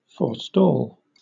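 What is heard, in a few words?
"forestall" British English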